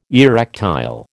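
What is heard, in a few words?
"erectile", American English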